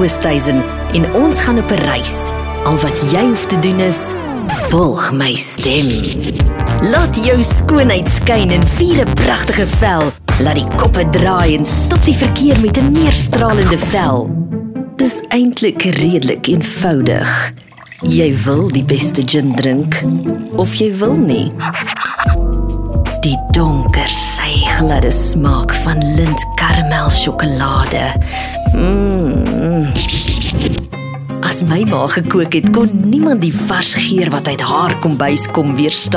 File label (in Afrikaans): Afrikaanse Mash up